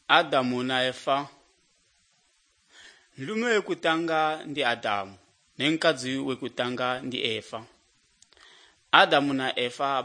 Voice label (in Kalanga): good news kalanga lilima, drama; bible